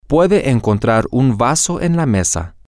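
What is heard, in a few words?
you can find a cup on the table, Spanish, male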